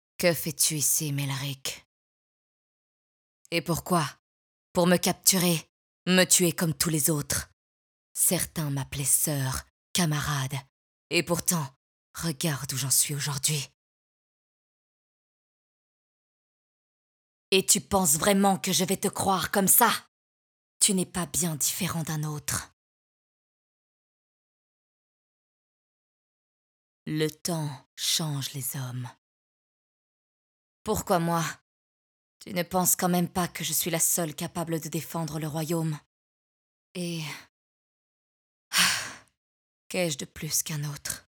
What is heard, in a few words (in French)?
VOIX OFF